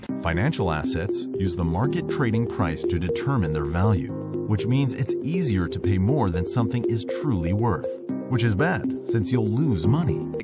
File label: foreigner